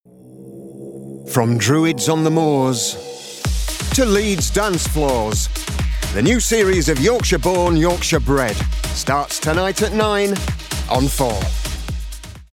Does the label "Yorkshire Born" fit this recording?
Yes